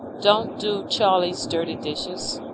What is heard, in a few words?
speech est